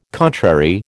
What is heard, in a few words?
"contrary", American